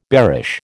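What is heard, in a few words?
"bearish", American English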